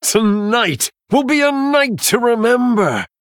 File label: Male Human Bartender Picked